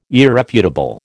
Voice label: "irrefutable", American